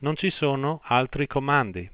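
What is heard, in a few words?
no more commands, Italian, Voice Sounds; Samsung SGH-i310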